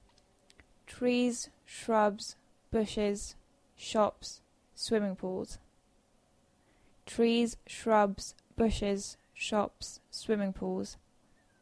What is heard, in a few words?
Dictation; phonology